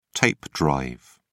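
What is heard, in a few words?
"tape drive", British English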